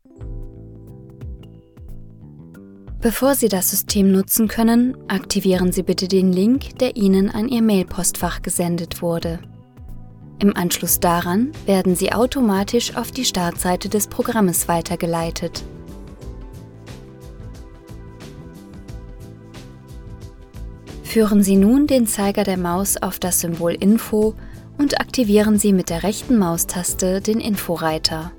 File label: E-Learning